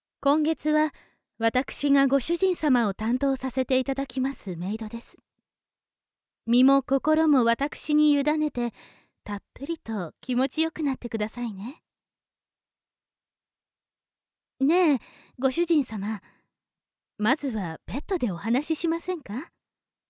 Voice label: メイドのささやき

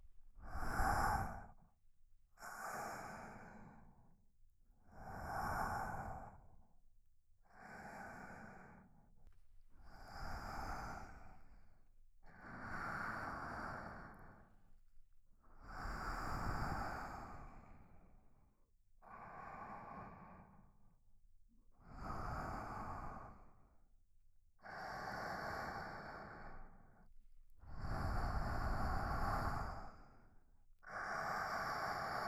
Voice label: 眠れる添い寝 右側, ASMR

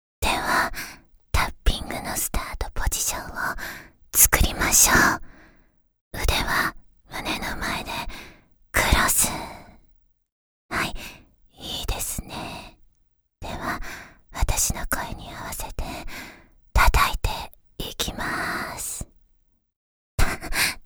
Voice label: タッピングB(ささやき); 実技パーツ（エクササイズ）; 第１回講座より（ラブ&タップ）

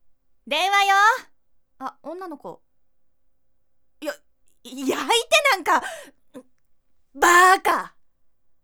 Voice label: 着信音

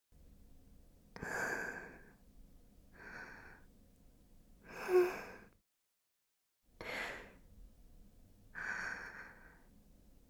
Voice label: なぐさめたいむ 左 声のみ ノイズ除去なし

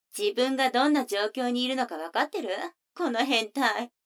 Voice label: 女の子 台詞

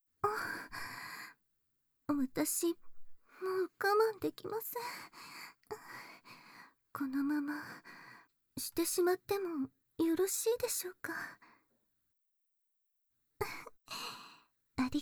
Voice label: 夜伽（バイノーラル 効果音あり）

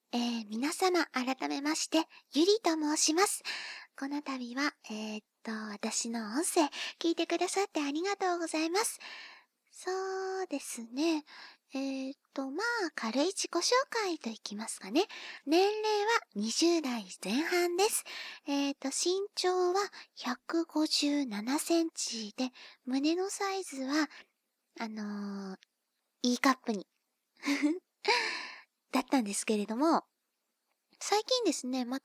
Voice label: 自己紹介 ステレオ

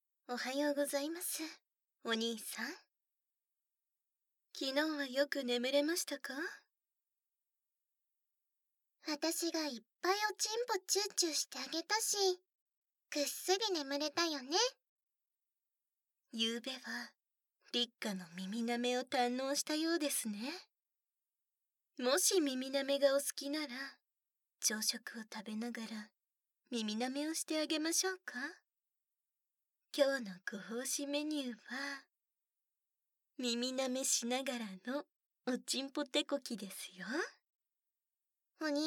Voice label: 耳舐め, ASMR; 母の朝食耳舐めと、娘のイマラチオ; 効果音なし

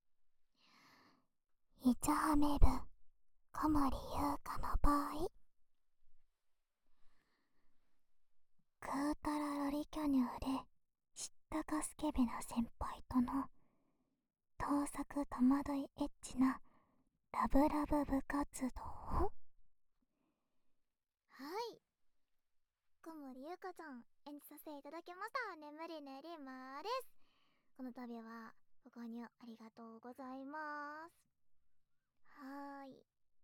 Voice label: フリートーク

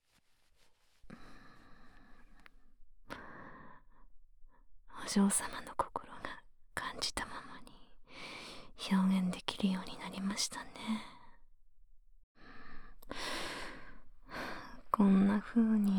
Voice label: 耳舐め調教, ASMR; できるメイド エリィカ のサポート音声

